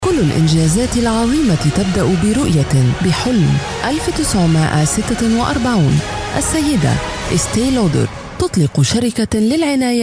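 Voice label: Arabic, female, narration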